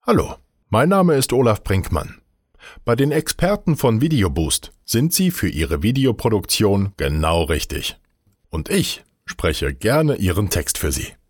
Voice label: Voiceover